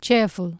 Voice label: "ceafl" Anglo Saxon

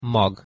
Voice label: "mag", Proto-Germanic

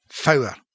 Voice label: "fowre", Scots

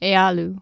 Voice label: "ealu", Anglo Saxon

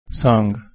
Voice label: "sAng", Proto Germanic

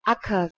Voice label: "aecer" Anglo Saxon

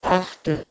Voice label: "ahto", Proto-Germanic

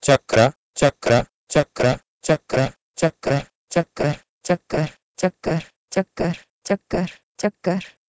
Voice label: Sanskrit "chakra" to Urdu "chakar"

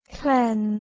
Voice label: "hleon", Anglo Saxon

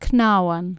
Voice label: "cnawan" Anglo Saxon

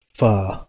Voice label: "fah", Anglo Saxon

